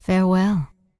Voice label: Night Elf Female Standard Farewell